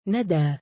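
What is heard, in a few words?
female name "nadaa", Arabic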